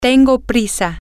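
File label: im in a hurry, spanish, female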